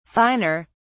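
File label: "finer", British English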